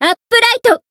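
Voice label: Voice finish, Japanese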